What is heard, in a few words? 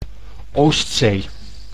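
"Oostzee", Dutch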